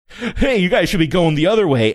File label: saying hey you guys should be going funny